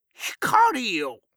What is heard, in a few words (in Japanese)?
光よ！, 戦闘ボイス（老人・高音）